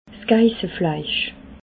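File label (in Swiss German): "geissefleisch", Bas-Rhin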